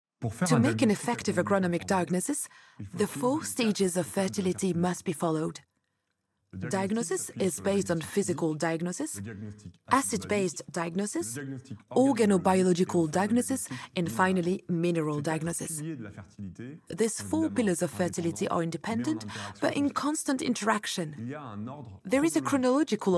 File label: Training VO European English